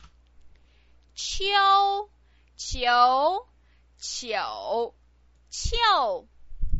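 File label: "qiu", Mandarin Chinese pinyin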